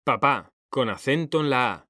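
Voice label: "papá", Spanish; mekanta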